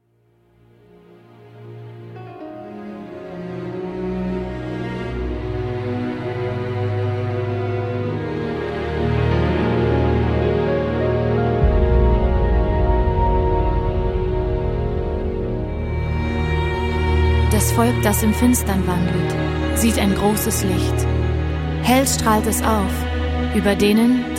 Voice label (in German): Lesung